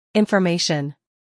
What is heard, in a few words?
"information", English